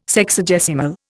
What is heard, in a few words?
"Sexagesimal", Indian